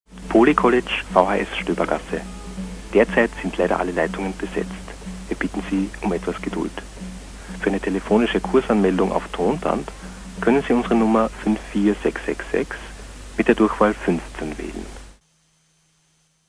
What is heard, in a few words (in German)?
Anrufbeantworter